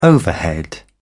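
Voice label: "overhead", British English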